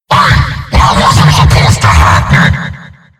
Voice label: Confusion; GoldPipe